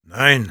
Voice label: Sniper no, de

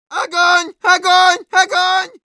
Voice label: auto on fire, ru; Medic

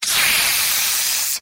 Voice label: jeers; Demoman; mvm

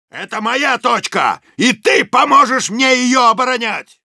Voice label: help me defend, ru; Soldier; «Это моя точка, и ты поможешь мне её оборонять!»

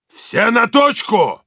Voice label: fight on cap, ru; Heavy

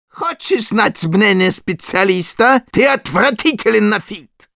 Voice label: taunts, ru; Medic

